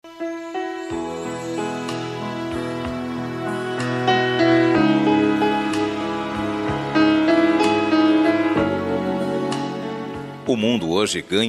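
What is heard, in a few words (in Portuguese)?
dia da avó masculina; dia dos avos para avo voz masculino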